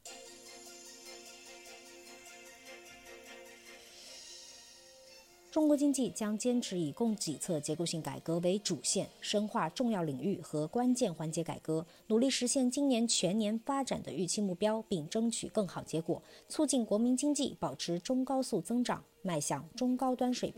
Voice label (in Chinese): 新闻类